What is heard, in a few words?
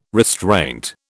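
"restraint", British English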